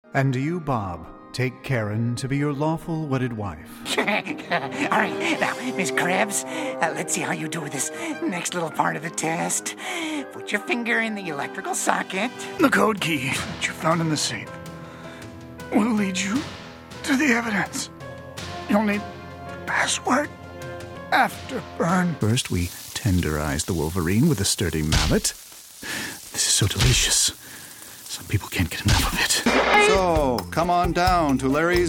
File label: animation